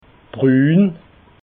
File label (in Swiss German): "brun", alsace